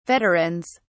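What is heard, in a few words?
"veterans", British English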